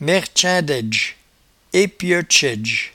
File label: "mairtchaindaidge", patois jura